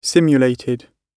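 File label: "simulated", British English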